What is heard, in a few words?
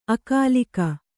"ಅಗಲಿಕೆ", kannada